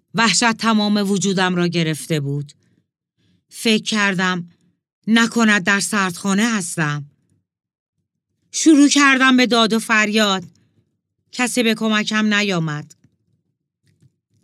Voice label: horror; nakhon